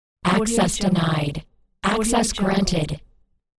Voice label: robot says access granted access denied